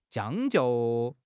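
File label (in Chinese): "将就", Mandarin Chinese; HSK6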